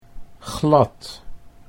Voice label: "glad", Dutch